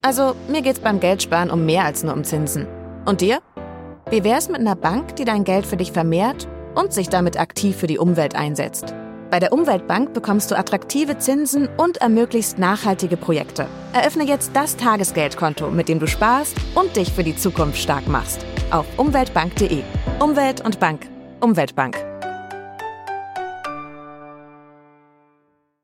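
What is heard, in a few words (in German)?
Werbung, Commercial, German, Akzent: Russian; Spotify; Umweltbank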